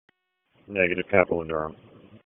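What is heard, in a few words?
Police Dispatch, p25; Wake Forest Police Disp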